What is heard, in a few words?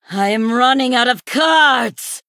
Female Emote Low Cards; Sanlayn